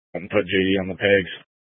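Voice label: Incident Tac 1, Scanner audio recording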